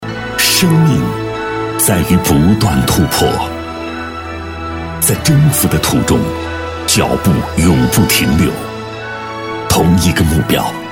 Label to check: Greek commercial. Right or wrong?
Wrong